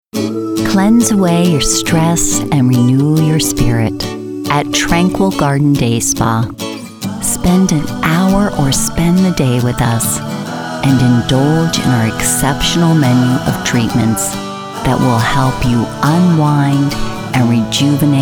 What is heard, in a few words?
Commercial